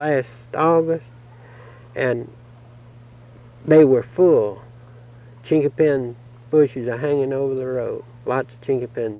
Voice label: Interview with 65 year old white male Mountain Rest South Carolina, american english dialect